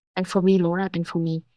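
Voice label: Voice Acting es, female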